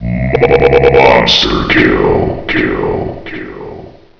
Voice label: score, announcer; hl1mp; XDM